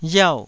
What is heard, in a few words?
"riau4", taiwanese